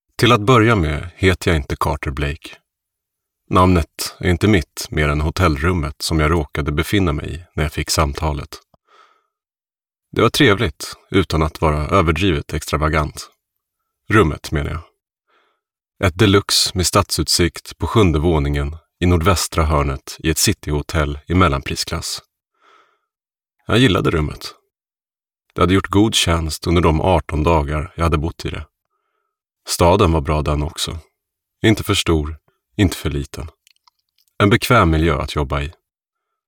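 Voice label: Swedish audiobook male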